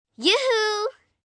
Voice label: Yoo Hoo, Calls, 1 girl, 9 yrs.; Talking; VoiceBox